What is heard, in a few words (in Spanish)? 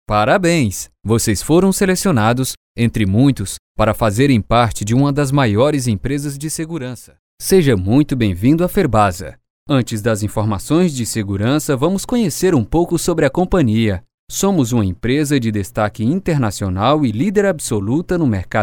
corporativo